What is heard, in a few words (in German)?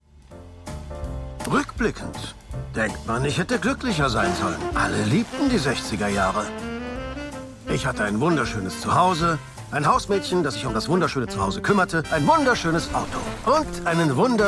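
Synchron, Sprecher, Männer; Archie; Die Cary Grant Story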